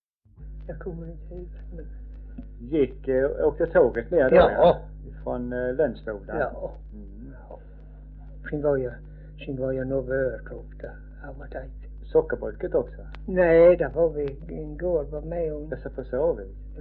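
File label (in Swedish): Örkened Skåne, dialekter svenska sagor; Folkminnen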